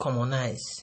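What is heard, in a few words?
"commonise", Nigerian Pidgin, male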